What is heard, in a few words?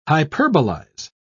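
"hyperbolize", British English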